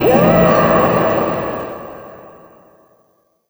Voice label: VOICE, VOICE-FX; Best Service - XXL Production Effects

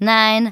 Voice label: "nine", spoken; Techno Trance Essentials

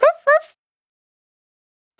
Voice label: Chien Chaud - Dry, Vocal Samples; Words

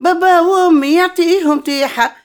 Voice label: OLD WOMAN, Arabian Traditions

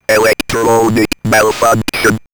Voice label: Robot Malfunction, robot vox; X-Static Goldmine

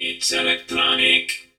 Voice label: "Its Electronic", vox; Zero-G; Soundclash Nu Dance Electro; Acidized

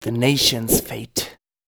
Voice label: male, Dance spoken hooks; Mutekki Media; Dance Ultra Voice VOL-1

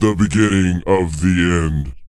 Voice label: pitch low; ILIO; Vocal Planet

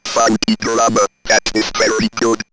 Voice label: Robot Funky Drummer, robot vox; X-Static Goldmine

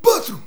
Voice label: "rumania", shouts; Best Service; Hyper Dance

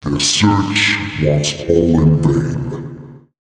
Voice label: male, voice; Mutekki Media; Dance Ultra Voice VOL-1

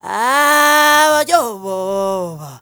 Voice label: ZULU MALE; Spectrasonics; Heart of Africa 2; S.MALEDRY

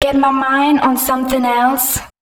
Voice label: female, spoken hooks

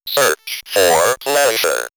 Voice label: Robot Search 4 Pleasure, robot vox; X-Static Goldmine

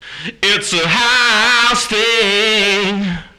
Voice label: Male FX Vocals; Houseworx

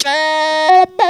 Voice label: "get up", Funky Vocals; USB Soundscan vol.18; AKAI

